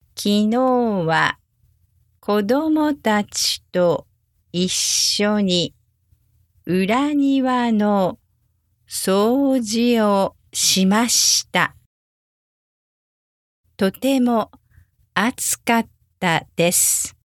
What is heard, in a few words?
backyard cleaning slow